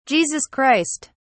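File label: "Jesus Christ", British English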